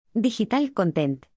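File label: "digital content", English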